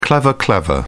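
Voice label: "clever clever", British English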